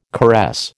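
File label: "caress", American English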